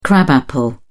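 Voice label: "crab apple", British English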